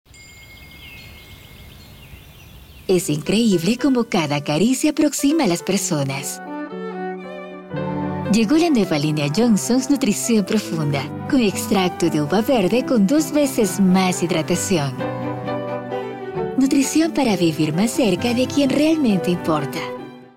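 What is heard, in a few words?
comercial, Ecuadorian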